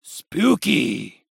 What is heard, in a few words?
Halloween Slap Ghost Spacerig